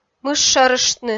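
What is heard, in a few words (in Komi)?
"мыш шӧрыштны", Komi, female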